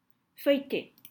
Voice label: "Feuilletée", French